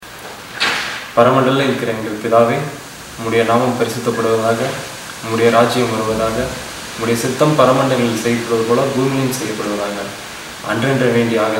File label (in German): Vaterunser Tamil